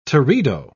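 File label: "teredo", British English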